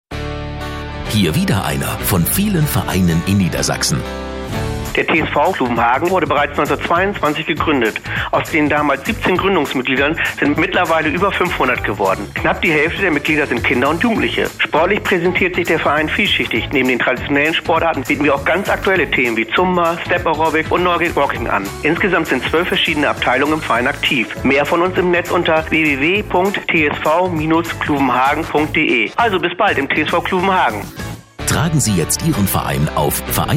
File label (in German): Radiospot